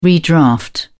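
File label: "redraft", English, British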